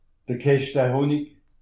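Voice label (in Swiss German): "keschte", Alsatian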